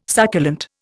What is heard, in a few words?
"succulent", Indian